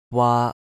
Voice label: "waa1", cantonese

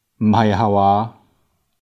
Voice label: "haa6 waa5", Cantonese

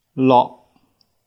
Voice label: "lok3", Cantonese

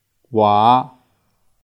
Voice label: "waa5", Cantonese

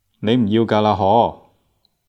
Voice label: "gaa3 laa3 ho2", Cantonese

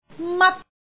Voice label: "mat1", Cantonese

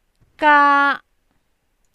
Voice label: "gaa1", cantonese

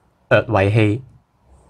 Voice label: "oet oet2", cantonese

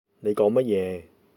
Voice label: "mat1", Cantonese

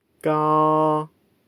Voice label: "gaa", cantonese

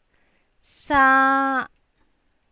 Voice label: "saa1", Cantonese

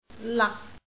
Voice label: "lak6", cantonese

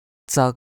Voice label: "zak1", cantonese

